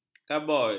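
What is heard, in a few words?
"kaboi", Malay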